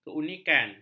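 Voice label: "keunikan", Malay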